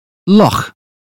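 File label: "loch", British phonetics; 英语音标发音（剑桥版）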